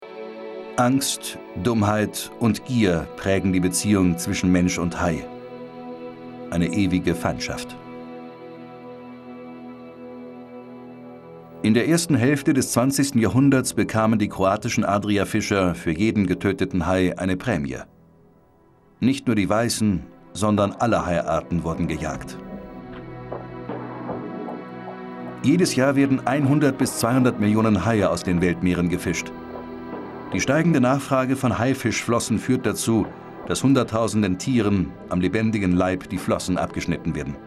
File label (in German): Kommentar